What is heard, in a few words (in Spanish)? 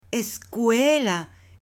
"escuela", M; LUGARES; AppLenguaje